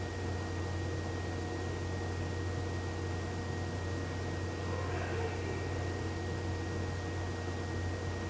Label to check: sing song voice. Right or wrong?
Right